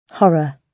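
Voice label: "horror", British English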